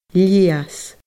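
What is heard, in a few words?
"leus", Scottish Gaelic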